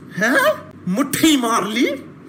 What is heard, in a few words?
accent